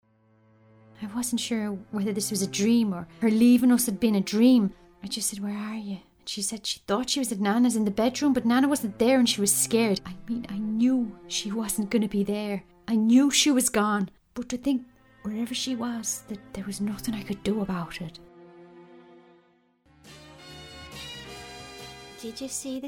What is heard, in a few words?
Radio Drama